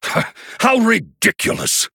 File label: Male Human Oops